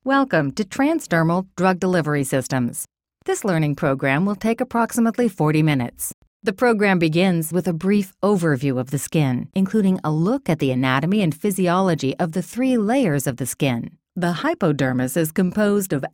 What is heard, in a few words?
Friendly Informative